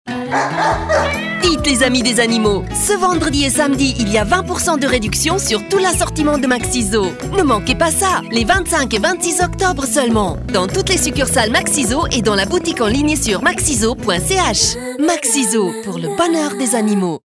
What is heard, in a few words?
FR; Fressnapf